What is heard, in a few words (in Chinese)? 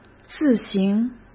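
"自行", Mandarin Chinese; hsk; chinese-flashcards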